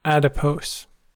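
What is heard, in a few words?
"adipose", US English; pronunciations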